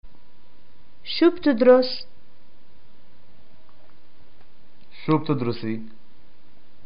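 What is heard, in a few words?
Palestinian get acquainted what do you study, arabic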